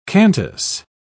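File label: "cantus", British English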